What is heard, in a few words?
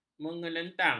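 "mengelantang", Malay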